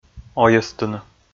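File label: "ойӧстыны", Komi, male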